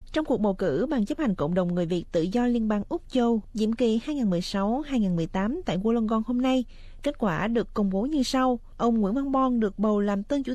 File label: vietnamese; CĐNVTD Liên bang Úc châu có BCH mới; SBS Vietnamese